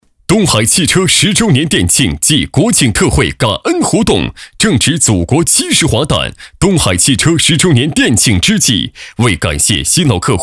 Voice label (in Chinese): 男 汽车 店庆 感恩活动; 某汽车10周年店庆暨国庆特惠感恩活动